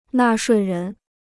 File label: "纳税人", Chinese